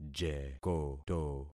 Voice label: "jekoto", concat syllables; Affixing experiment

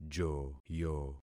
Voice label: "joyo", concat syllables; Affixing experiment

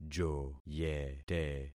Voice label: "joyete", concat syllables; Affixing experiment

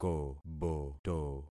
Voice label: "koboto", concat syllables; Affixing experiment

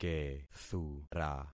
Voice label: "kethura", concat syllables; Affixing experiment

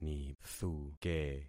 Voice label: "nithuke", concat syllables; Affixing experiment

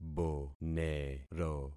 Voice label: "bonero", concat syllables; Affixing experiment

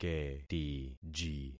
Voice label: "ketiji", concat syllables; Affixing experiment

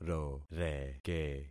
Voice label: "roreke", concat syllables; Affixing experiment

